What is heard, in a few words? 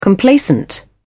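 "complacent", British English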